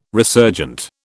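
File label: "resurgent", British English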